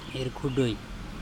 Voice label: plate round ErkHuDoi, Andamanese